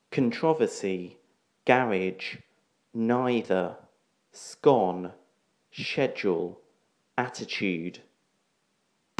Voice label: wells somerset uk male